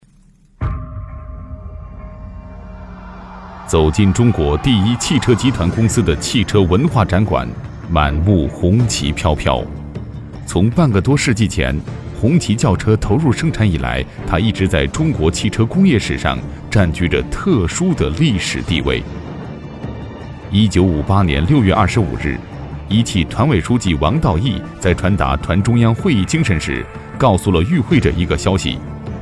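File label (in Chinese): 男国大音-纪录片; 中国一汽纪录片样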